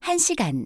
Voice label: Korean 5 hour; samsung YP-U5; Audio User Interface

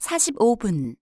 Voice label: Korean 45 min; samsung YP-U5; Audio User Interface

